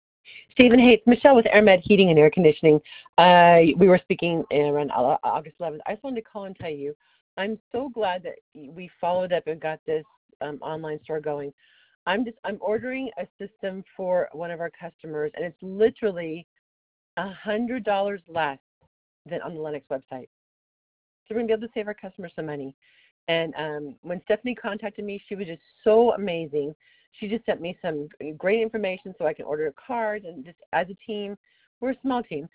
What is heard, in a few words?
Testimonial Voicemail; Air Med Happy Contractor